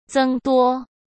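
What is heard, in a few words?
"增多", Mandarin Chinese; chinese-flashcards; hsk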